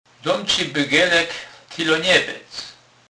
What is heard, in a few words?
bygelek, Kurpie; slownik